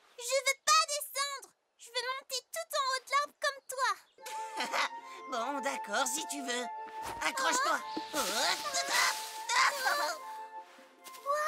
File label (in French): DOUBLAGE; Sylvanian Families